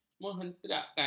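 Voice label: "menghentakkan", Malay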